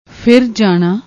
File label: "fir jaana", Punjabi